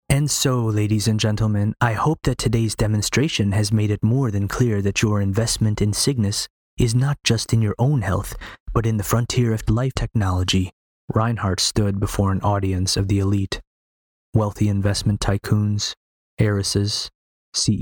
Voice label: Audiobook Narration